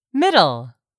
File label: "middle", English; 3000 words